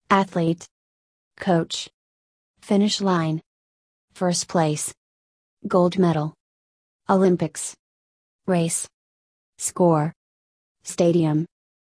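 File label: American; exercise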